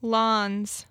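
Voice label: "L'Anse", Michigan Pronunciations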